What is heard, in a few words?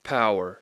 "power", English